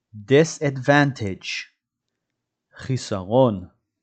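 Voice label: "disadvantage" English, "חיסרון" Hebrew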